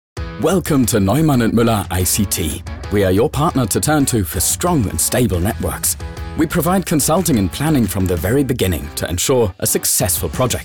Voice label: ENGLISCH Eventtechnik SHORT; Neumann Mueller

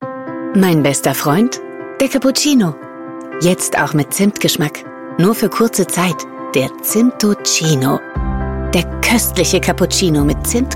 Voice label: Werbung Cafe

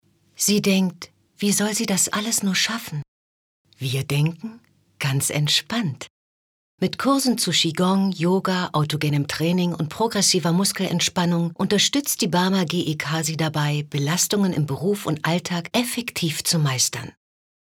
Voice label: sprachproben monolog

